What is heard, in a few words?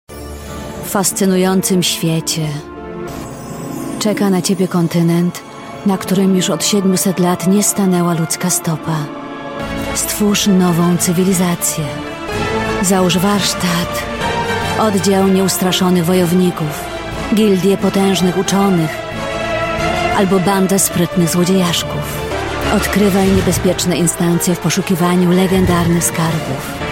trailer; therian